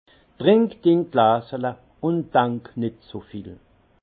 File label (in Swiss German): "trink din glasele", alsace; vin; bois ton verre et ne pense pas trop